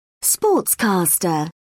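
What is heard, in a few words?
"sportscaster", British English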